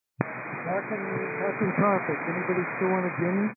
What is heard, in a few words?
122 725MHz, scanner radio